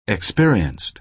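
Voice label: "experienced", American English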